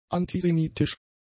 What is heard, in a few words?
"antisemitisch", German; adjectives